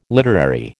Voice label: "literary", American